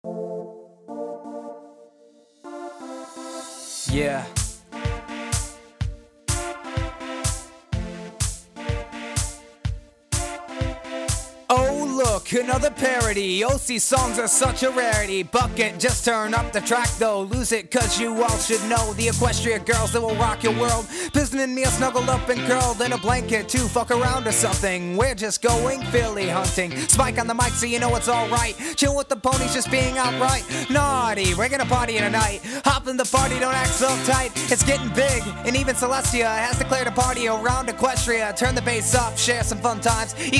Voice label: Rap; Yelling At Cats

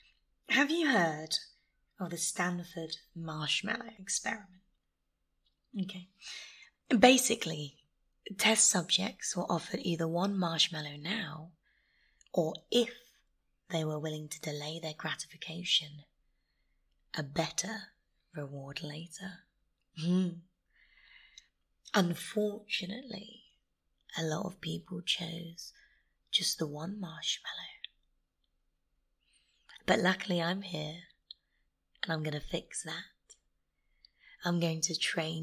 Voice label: [F4M] [British; JOIP; Edging; Stamina Builder; Countdown; Training; Teasing

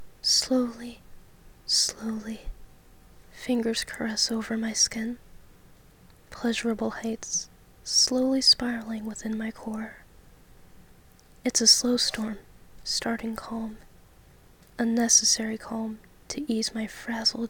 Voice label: Erotic poetry, Soft voice; F4A; Waves

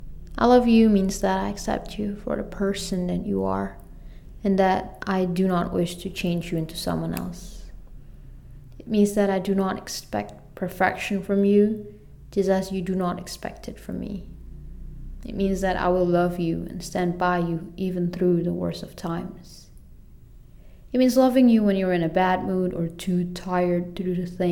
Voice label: reading

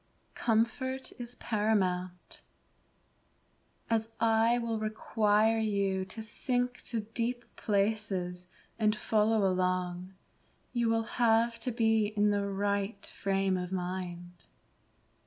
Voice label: Eyes Closed, Hypnosis, Pre-Session Talk

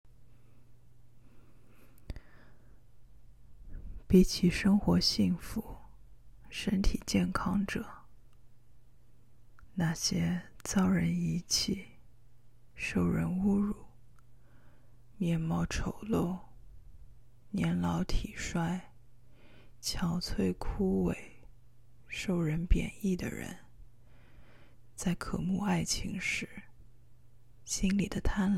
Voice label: Short Reading, Few sentences in Chinese; F4A; Zweig

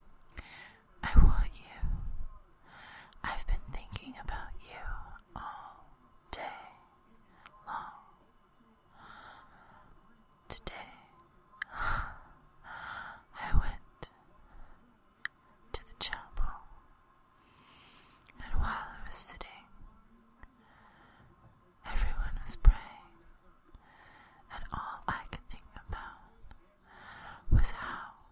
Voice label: Whispers asmr

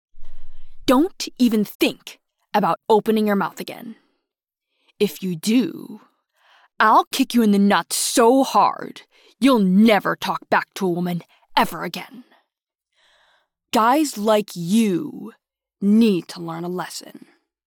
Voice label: Ball Kicking Threat, F4M; Erotic audio; 20 Second Audios; BallBusting

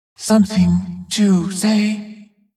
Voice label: Pissed; Li Li; Heroes of the Storm; warcraft

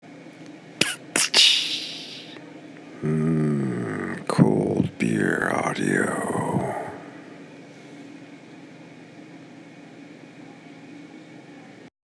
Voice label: Saturday Night ASMR

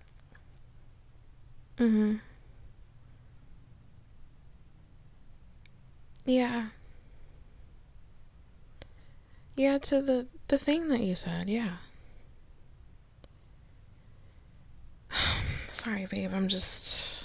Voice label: sleep sounds, sleepy voice; F4F; F4M; GFE; slight reverse comfort; breathy; kissing; pet names; gentle; intimate; F4A; Exhaustion

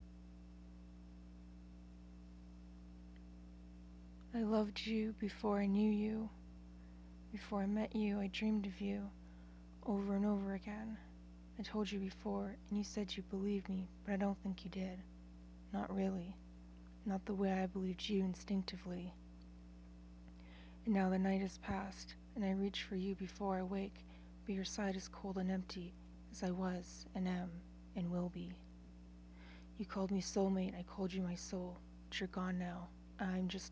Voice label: Ghostly, original poem

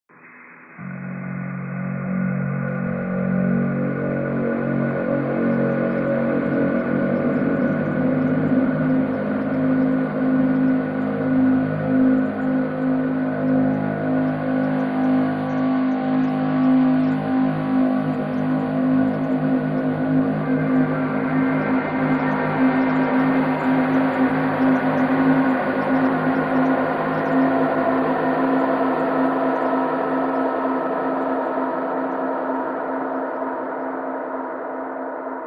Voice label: voice is scary; Pony Music Archive

